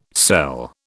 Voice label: "sell", British

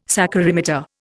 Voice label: "saccharimeter", Indian

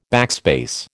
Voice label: "backspace", American

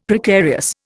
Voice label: "Precarious", Indian; Dictionary

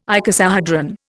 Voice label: "icosahedron", Indian

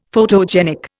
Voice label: "photogenic", Indian; Dictionary

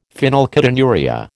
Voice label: "phenylketonuria", American

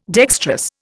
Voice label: "dextrous", Indian

